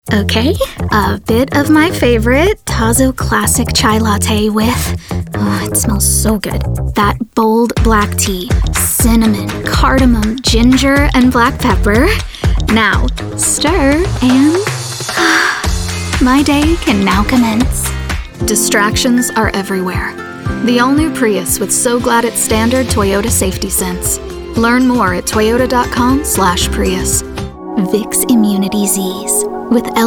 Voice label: commercial